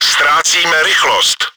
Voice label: engine damaged, cz; World of Tanks; Ztracíme rychlost; Мы теряем скорость